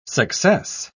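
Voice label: "success", English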